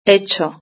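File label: "hecho" Spanish; verb-conjugator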